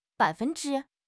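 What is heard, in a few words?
"bai3 fen1 zhi1", Mandarin Chinese; HSK; HSK4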